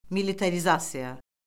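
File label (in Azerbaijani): "militarizasiya", Azerbaijani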